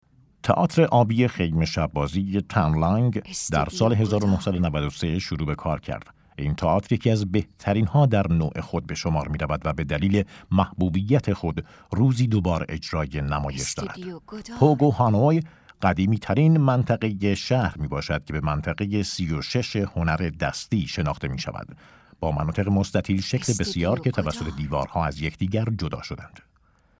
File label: documentary